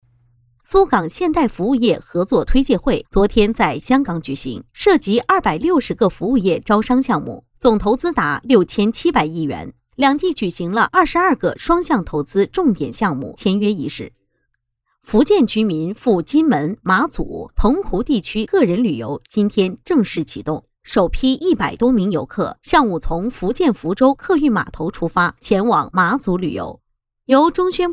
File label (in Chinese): 新闻